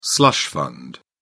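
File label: "slush fund", English, British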